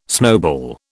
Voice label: "snowball", British

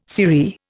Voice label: "sirree", Indian

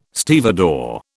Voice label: "stevedore", British

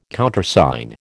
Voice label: "Countersign", American

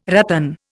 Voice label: "ratan", Indian

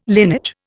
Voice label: "linage", Indian

